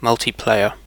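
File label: "multiplayer", British English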